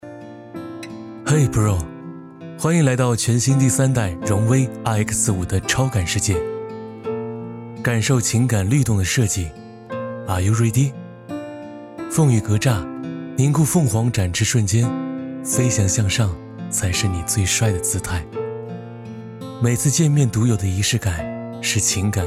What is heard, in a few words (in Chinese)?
温柔版; 荣威温柔版